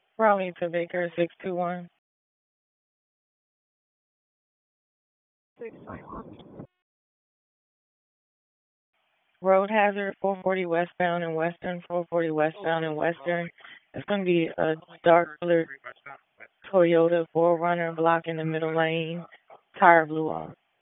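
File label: Police Southwest District Dispatch; Raleigh; wake p25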